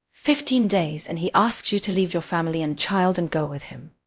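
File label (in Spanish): Voice Acting, es, female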